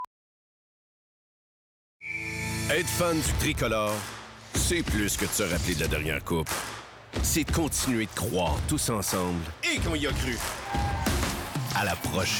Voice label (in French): demo voix